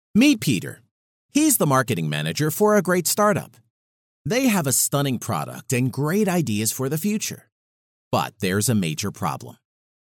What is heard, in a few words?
US male; lektoring